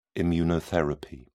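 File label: "immunotherapy", British English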